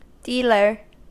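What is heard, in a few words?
"dealer", US English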